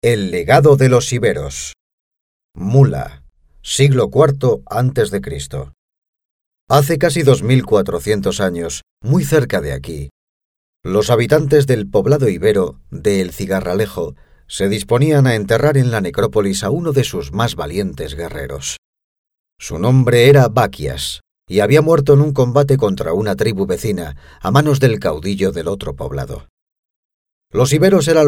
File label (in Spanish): guias culturales audio; iberos